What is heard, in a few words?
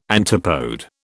"antipode", British